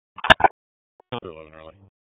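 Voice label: Police District Dispatch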